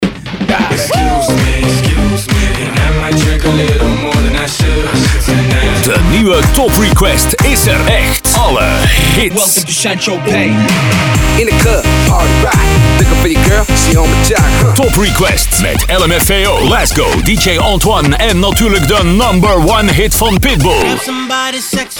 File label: summer commercial; toprequest; Breakout